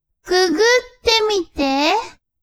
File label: woman